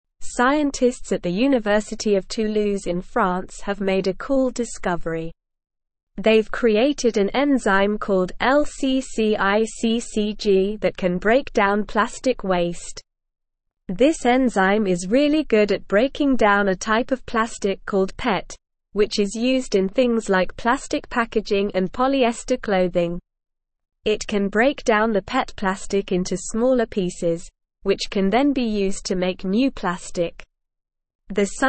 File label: English Newsroom Upper Intermediate SLOW Reading; Enzyme Breaks Down Plastic Waste Offers Recycling S